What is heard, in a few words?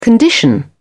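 "condition", British English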